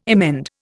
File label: "emend", Indian